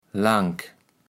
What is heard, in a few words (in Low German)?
"lank", kaevels platt